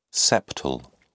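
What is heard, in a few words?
"septal", British English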